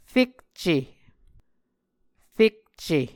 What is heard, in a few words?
"fekce", muscogee